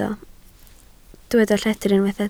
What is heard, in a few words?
"lledr", Welsh